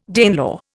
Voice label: "Danelaw", Indian

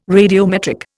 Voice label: "radiometric", Indian

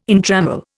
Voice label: "entrammel", Indian

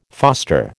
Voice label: "foster", American

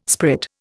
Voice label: "sprit", Indian

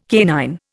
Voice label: "canine", Indian

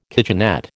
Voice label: "kitchenette", American

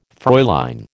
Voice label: "Fräulein", American

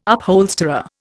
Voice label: "upholsterer", Indian

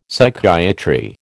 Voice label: "Psychiatry", American

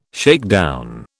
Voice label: "shakedown", British

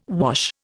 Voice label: "wash", Indian

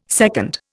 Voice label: "secund", Indian

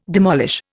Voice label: "demolish", Indian

